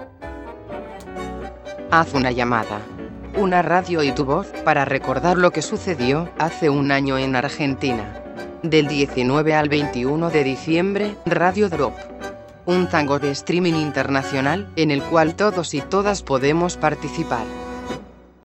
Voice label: spot radio drop, es